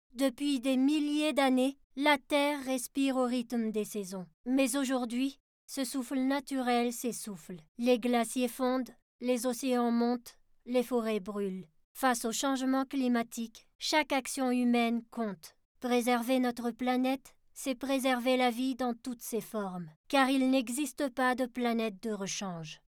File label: Narration